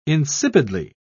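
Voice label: "insipidly", British English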